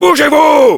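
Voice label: "go", French; "Bougez-vous !"; Heavy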